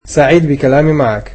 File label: It was nice talking to you, egyptian